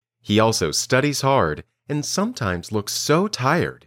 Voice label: Dictation